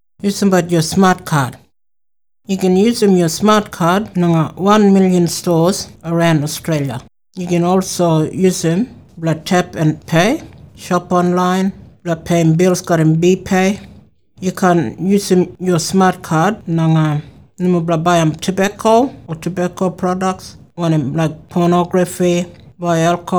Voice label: kriol; using your smartcard